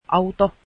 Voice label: car, Finnish